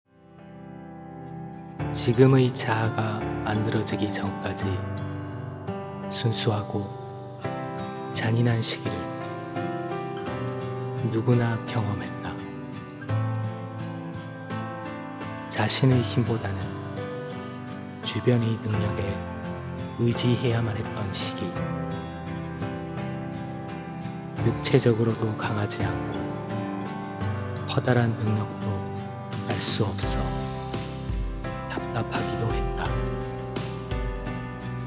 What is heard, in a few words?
Kor Jap Narration